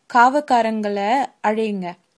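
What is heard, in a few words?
police, Tamil, female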